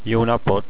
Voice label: "hello", hungarian